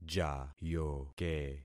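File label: "jayoke", concatenated syllables; Affixing experiment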